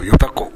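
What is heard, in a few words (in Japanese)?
"yotako", 南山形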